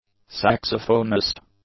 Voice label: "saxophonist", English